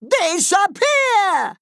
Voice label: Male Goblin Attack

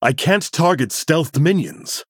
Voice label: Male Human ERROR STEALTH

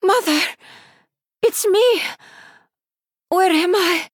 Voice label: Female Draenei In Game Victory Post Explosion; Runi

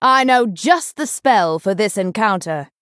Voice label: Female Night Elf Greetings

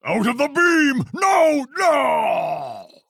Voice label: Male Orc Death

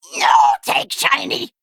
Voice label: Female Kobold Attack

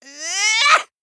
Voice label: Male Gnome Attack

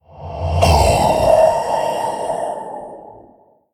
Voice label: Male Shade Death

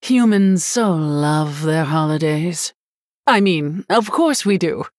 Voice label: Female Human happy holidays

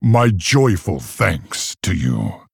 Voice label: Male Orc Thanks

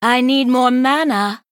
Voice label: Female Sanlayn Emote ERROR NEED MANA

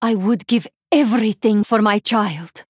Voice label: Female Draenei kids week

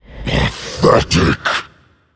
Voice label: Male Demon Attack

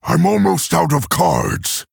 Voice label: Male Orc; Thrall; Low Cards

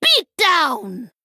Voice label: Female Troll Attack

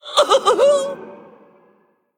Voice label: Male Elemental Death

